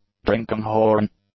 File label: "drinking-horn", English; nouns